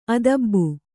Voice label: "ಆದಾಬು", Kannada

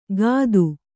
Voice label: "ಕತ್ತು", kannada

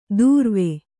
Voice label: "ದೂರ್ವೆ", Kannada

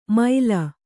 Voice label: "ಮೈಲ", Kannada

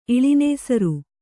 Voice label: "ಇಳಿನೇಸರು", kannada

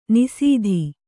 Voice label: "ನಿಸೀಧಿ", Kannada

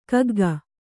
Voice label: "ಕಗ್ಗ", Kannada; kagga; noun

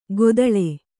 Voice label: "ಗೊದಳೆ", Kannada

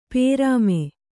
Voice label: "ಪ್ರೇಮಿ", kannada

